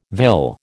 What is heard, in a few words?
"vill", American